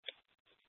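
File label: Sheriff Dispatch, Scanner audio recording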